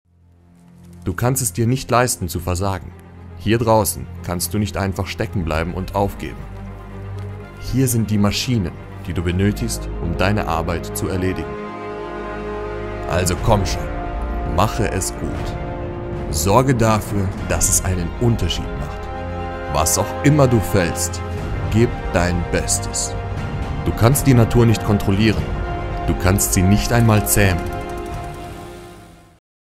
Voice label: GERMAN